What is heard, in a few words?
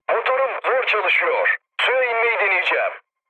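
Turkish damaged engine, mood low, speech; War Thunder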